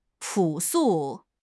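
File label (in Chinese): "朴素", Chinese; HSK6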